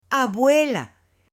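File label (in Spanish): "abuela", Spanish, male; AppLenguaje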